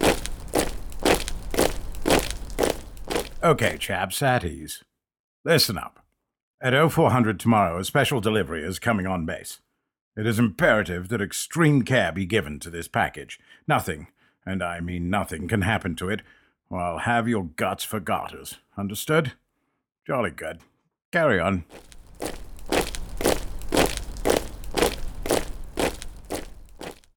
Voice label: male British voiceover character brigadier general